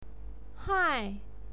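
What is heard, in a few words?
"hai", Mandarin Chinese pinyin, fourth tone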